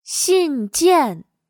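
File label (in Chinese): "信件" Mandarin Chinese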